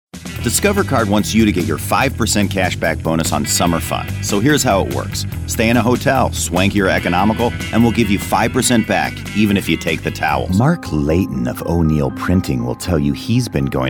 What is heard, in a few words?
Commercial